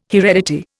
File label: "heredity", Indian